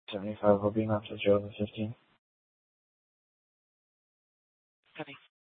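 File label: Police Department Dispatch, scanner; TOPAZ Regional Wireless Cooperative (TRWC) - Mesa Simulcast; Mesa PD; 4 Superstition Dispatch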